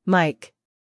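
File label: "Mike", American English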